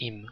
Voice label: hiriq niqqud hebrew vowels